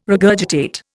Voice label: "regurgitate", Indian